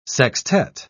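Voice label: "sextette", British English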